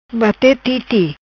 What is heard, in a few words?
"Bate Titi", Macanese